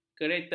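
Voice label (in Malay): "kereta", Malay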